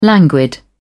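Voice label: "languid", British English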